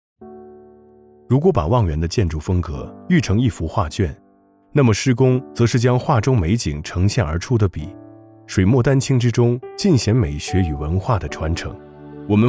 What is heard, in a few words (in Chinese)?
男 走心 素人温情自然